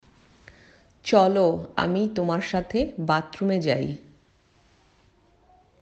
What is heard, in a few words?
Bangla